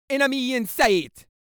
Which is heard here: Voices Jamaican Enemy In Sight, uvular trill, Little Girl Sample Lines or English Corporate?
Voices Jamaican Enemy In Sight